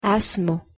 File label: "esmu", Latvian